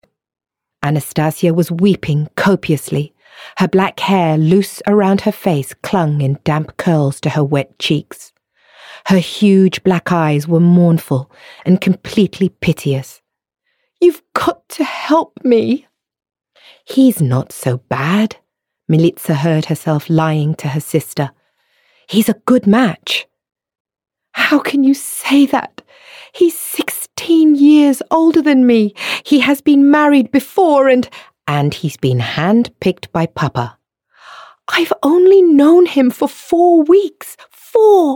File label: UK English Adult Fiction; The Witches